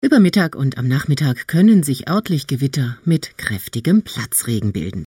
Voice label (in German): Ansage 0p5 Millisec delay